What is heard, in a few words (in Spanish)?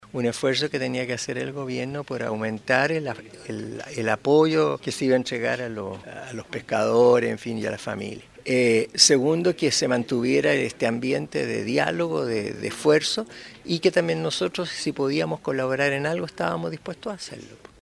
cuña arzobispo radio